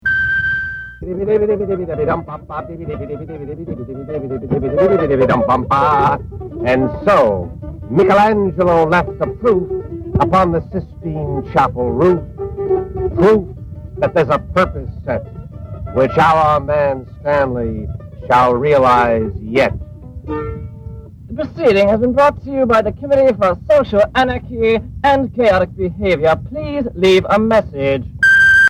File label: Phone Msg